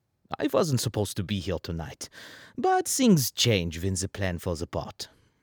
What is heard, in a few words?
German Character